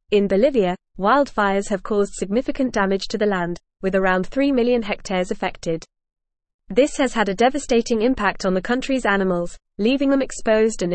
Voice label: Advanced FAST Reading, English; English Newsroom; Bolivias Wildfires Locals Care for Animals Seek International

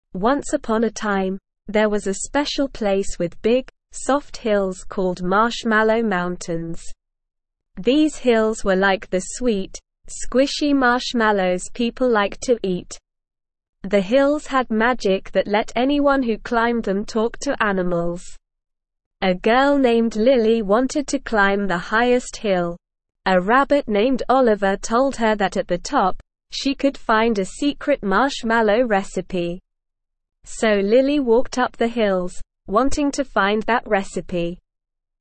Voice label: ESL Short Stories for Kids Beginner SLOW Reading; The Marshmallow Mountains

